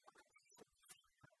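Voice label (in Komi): кымын часын тэ садьман, Komi, female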